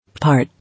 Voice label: "Part", English

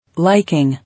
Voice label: "Liking", English

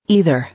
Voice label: "Either", English

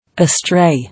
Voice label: "Astray", English

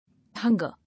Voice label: "Hunger", English

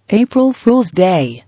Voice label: "All Fool's Day", English

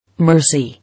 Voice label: "Mercy", English

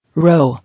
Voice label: "Row", English

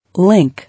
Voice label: "Link", English

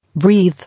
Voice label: "Breathe", English

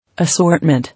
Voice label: "Assortment", English

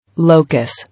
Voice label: "Locus", English

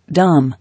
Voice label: "Dame", English

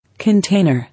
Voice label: "Container", English

